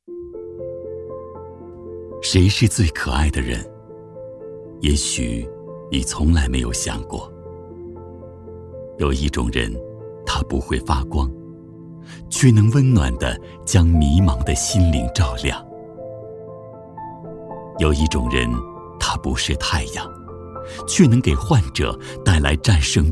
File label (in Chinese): 男 走心宣传 舒缓大气 走心; 共产党人; 透亮故事感; 质感磁性